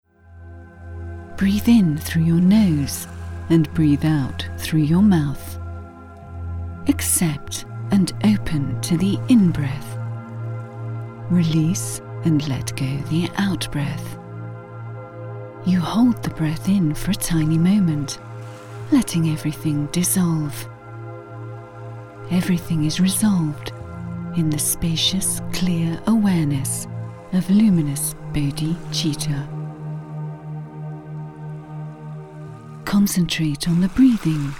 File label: Instructional